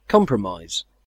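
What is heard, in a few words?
"compromise", British English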